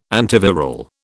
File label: "antiviral", British